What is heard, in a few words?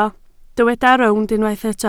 "rownd", Welsh